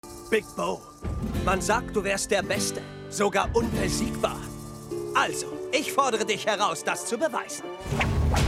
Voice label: Animation; Showdown